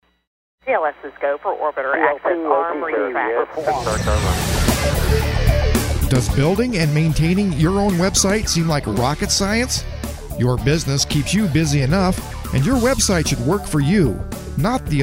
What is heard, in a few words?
commercial